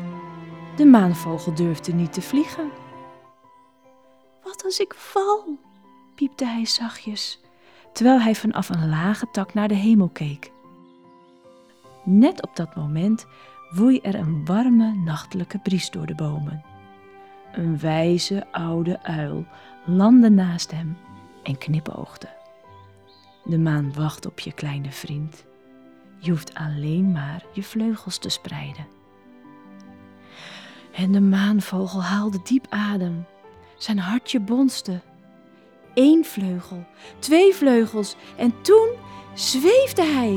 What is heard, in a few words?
voice over; Maanvogel